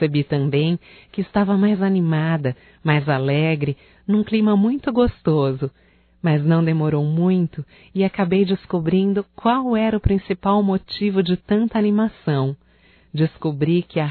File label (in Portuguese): AGRADECIMENTO COMPARECER MINHA FESTA, Só Voz, fem; Telemensagens; AGRADECIMENTOS